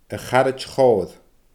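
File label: "a charaid chooir", Scottish Gaelic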